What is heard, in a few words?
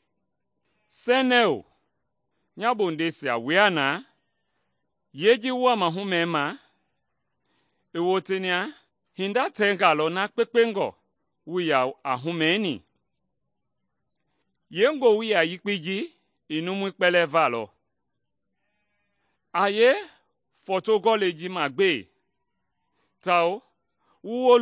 mende bsl nt drama; good news mende kpa